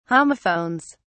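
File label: "homophones", British English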